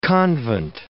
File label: "convent", English